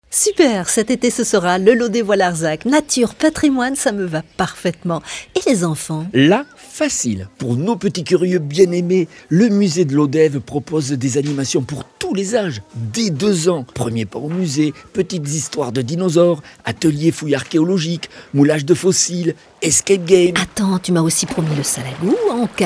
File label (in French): spot; lodevois et larzac